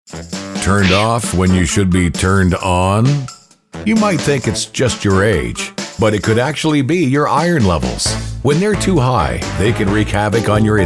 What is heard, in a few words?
COMMERCIAL